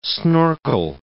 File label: "snorkel", English